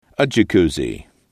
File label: "a jacuzzi", english